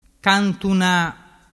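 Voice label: "cantuna", Vernazzese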